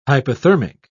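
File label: "hypothermic", British English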